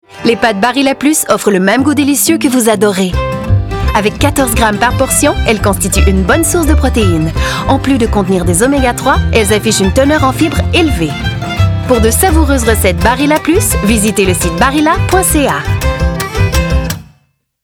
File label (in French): annonceure; Barilla